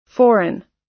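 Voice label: "foreign", US English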